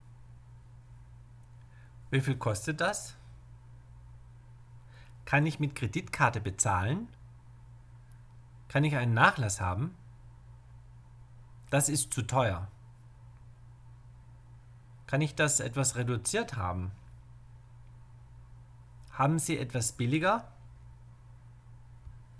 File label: German Lesson Bargaining